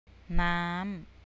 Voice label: water, thai